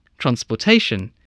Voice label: "transportation", voice

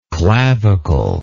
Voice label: "claviculate", English

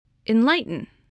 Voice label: "enlighten", spelling

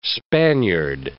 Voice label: "Spaniard", English

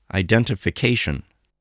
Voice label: "identification", voice spelling

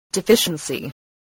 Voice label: "deficiency", English

